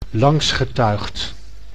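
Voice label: "langsgetuigd", Dutch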